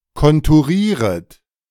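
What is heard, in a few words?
"konturieret", German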